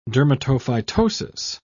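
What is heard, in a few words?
"dermatophytosis", British English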